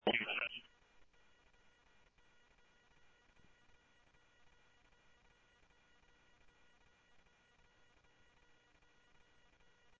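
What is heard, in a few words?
Police Department Traffic, scanner audio; SCTSDLE PD-Traff; H-Scottsdale; ScottsdalePolice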